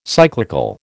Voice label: "cyclical", American

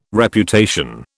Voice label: "Reputation", British

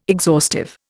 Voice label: "exhaustive", Indian

